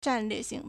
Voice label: "zhan4lue4xing2", Chinese